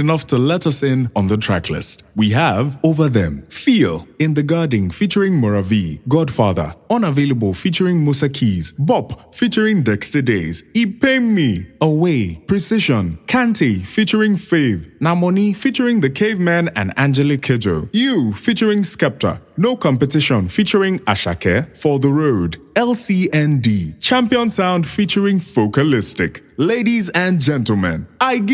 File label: nigerian voice system announcements